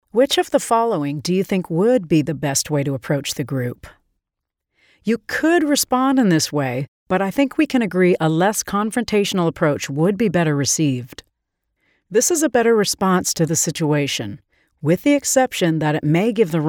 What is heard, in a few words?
E-Learning HR